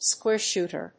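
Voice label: "square shooter", English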